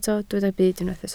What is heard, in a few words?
"byd", Welsh